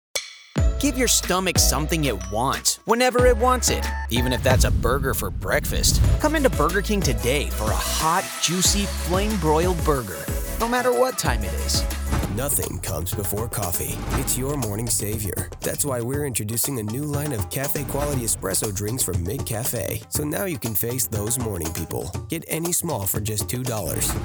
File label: VO Commercial